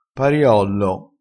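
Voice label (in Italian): "pariollo", dialettale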